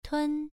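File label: "tun" Mandarin Chinese, first tone, pronunciation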